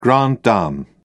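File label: "grande dame", English, British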